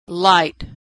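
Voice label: "light", American English; vowel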